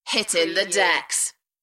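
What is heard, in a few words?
UK female, DJ vocals; Hittin the decks; beanstalk audio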